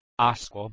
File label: "asko", Proto-Germanic